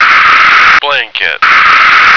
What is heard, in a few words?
"blanket", American